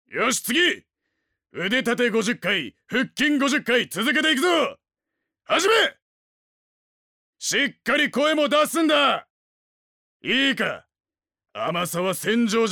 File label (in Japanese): 外画